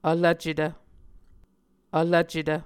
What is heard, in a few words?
"vlvcetv", Muscogee